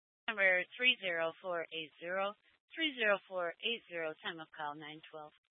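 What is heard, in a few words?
Incident Tac 3, Scanner audio recording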